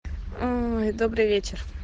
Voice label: "good evening", golos devushki; pak golos devushki